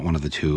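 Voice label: one oF the two, American English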